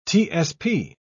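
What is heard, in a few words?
"tsp", British English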